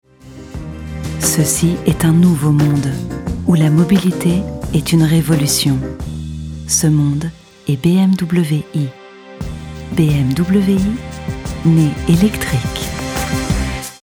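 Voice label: French advert; werbung; sprecher; franzoesisch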